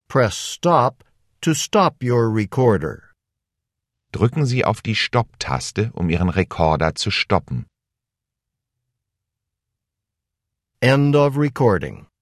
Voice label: german directions end of part b